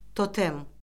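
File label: "totem", Azerbaijani; Orfoqrafiya Lüğəti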